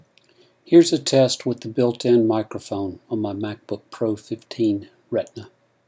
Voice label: Builtin Mic